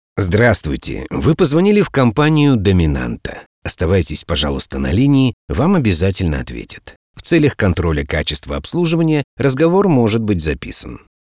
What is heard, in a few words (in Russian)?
avtootvetchik